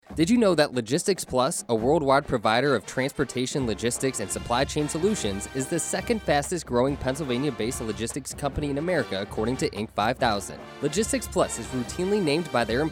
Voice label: football commercial